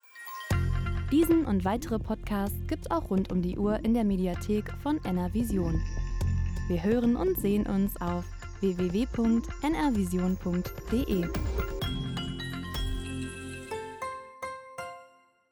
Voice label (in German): audiotrailer podcast weiblich